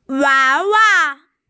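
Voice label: "wa2wa5", Mandarin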